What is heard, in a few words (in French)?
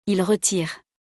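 "il retire", French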